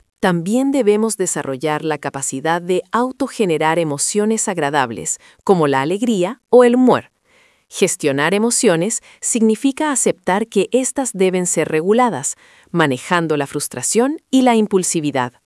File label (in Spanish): locucion